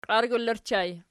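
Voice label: "qiargyla" ergative plural, Archi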